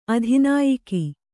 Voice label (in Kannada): "ಅಧಿನಾಯಕ", Kannada